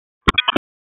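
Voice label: Raleigh Police Northeast District Tactical, p25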